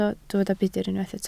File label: "budr", Welsh